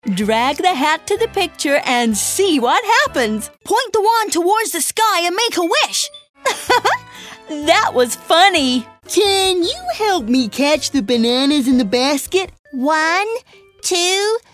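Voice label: American English, toys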